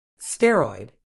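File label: "steroid", British English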